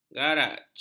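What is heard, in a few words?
"garaj", Malay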